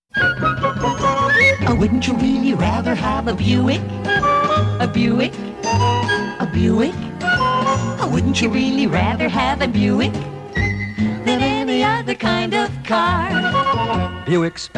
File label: Radio Spot; Buick